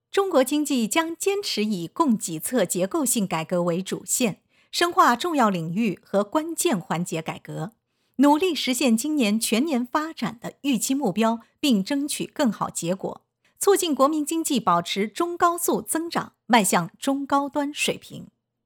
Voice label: 新闻类

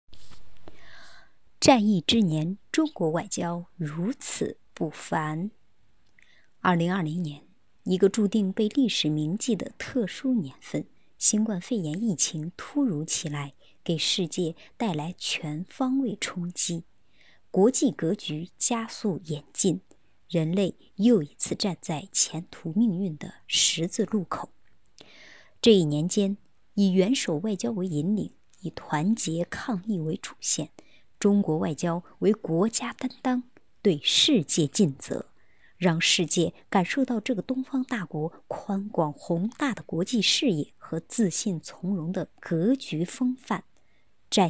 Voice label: 新闻 播报

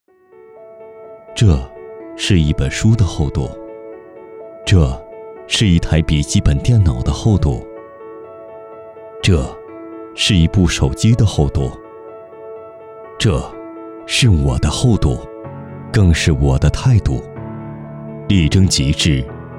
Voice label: 走心 品质 广告

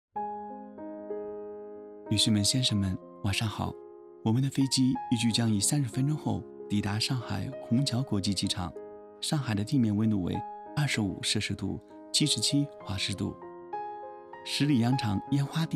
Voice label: 航空播报混音

